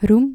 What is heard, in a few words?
"roem", Dutch